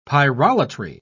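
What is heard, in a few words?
"pyrolatry", British English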